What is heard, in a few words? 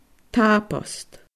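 "Tabost", Scottish Gaelic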